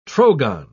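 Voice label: "trogon", British English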